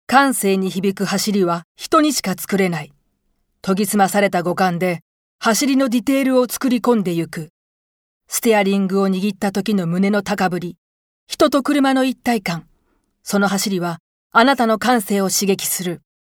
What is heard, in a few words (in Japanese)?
自動車CM